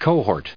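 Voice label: "cohort", US English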